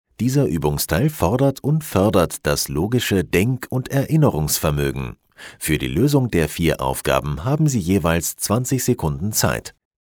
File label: e learning; Deutsch m.